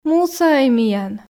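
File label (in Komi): мусаӧй миян, Komi, female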